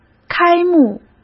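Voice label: "开幕", Mandarin Chinese; chinese-flashcards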